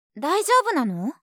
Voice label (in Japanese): 大丈夫なの？, 相づち・反応系【ネガティブ】